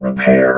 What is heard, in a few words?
"repair", robot